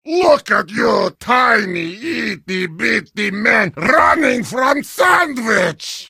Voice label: heavy sandwich taunt, vo

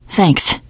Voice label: thanks, teen girl; survivor voice

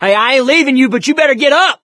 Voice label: revive friend loud, survivor voice mechanic; source engine; left 4 dead 2

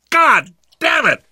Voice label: reaction negative, survivor voice

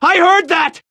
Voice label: radio initial broadcast

